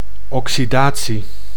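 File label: "oxydatie", Dutch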